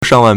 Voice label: "shang4wan4", Chinese

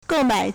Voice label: "gou4mai3", Chinese

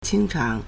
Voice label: "qīngcháng", Chinese; 清偿 (清償); verb; to redeem; to clear

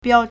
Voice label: "biao1", Chinese

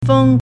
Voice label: "feng1", Chinese

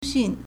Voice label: "xun4", Chinese

